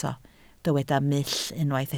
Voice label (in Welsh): "mull", Welsh